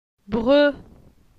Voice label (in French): "Bre", phonetique; la syllabe e